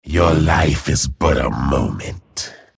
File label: chatter positive; frag